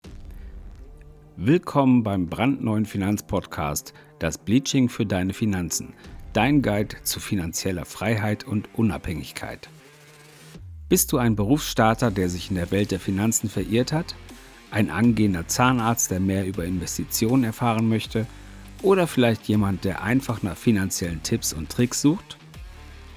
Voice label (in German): Trailer; das bleaching fuer deine finanzen